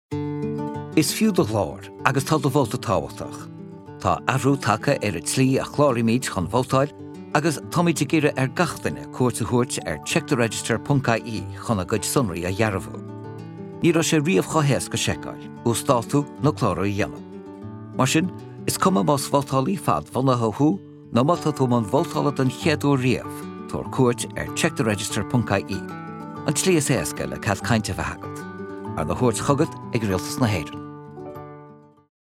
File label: Irish